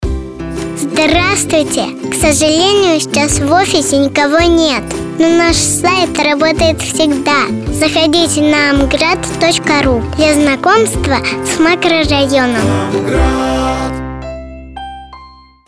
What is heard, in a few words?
IVR; Amgrad